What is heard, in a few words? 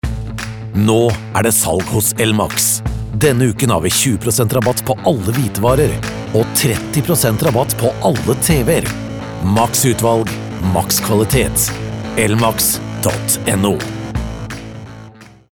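Norwegian hardsell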